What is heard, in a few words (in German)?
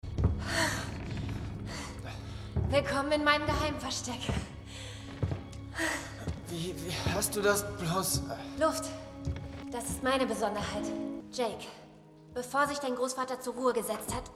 Synchron Kino; LOFT VOICES